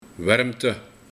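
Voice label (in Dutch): "Werremte", dialect; Warmte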